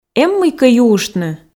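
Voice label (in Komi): эм мыйкӧ юыштны, Komi, female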